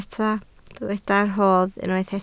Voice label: "rodd", Welsh